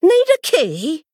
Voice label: Female Worgen Play